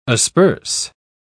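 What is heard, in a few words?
"asperse", English, British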